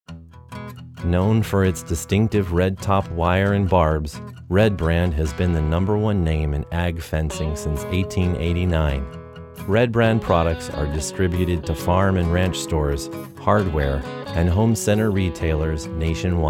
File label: farmer/bloke, commercial; Red Brand